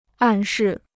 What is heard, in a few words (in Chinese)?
"暗示", Chinese